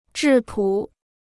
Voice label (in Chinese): "质朴", Chinese